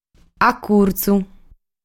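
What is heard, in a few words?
"Acurtzu", Sardinian word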